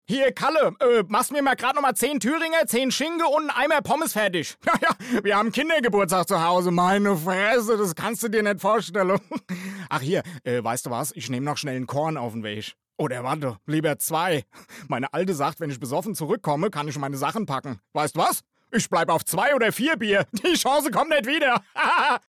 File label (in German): dialekt